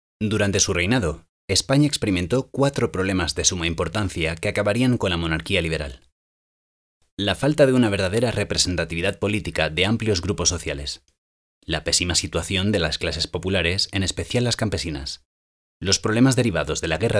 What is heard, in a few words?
locutor spanish voiceover